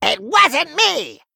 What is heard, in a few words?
Male Gnome Attack